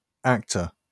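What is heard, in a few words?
"actor", British English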